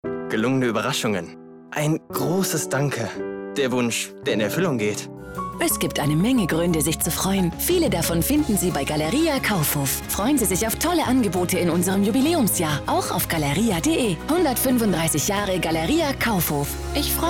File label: commercial on; 135 jahre galeria kaufhof danke